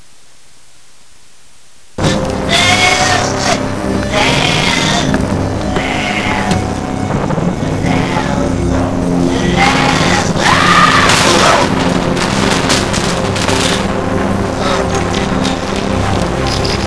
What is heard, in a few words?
stuttering